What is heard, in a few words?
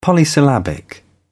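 "polysyllabic", British English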